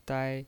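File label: "dai", Mandarin Chinese pinyin voice, first tone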